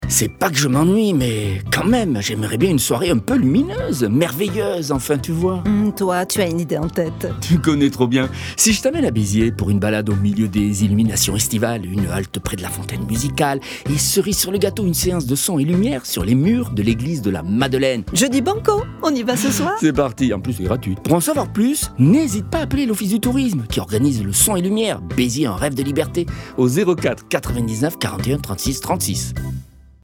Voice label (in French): spot radio; beziers; rfm europe 2; son lumieres